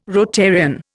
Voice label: "Rotarian", Indian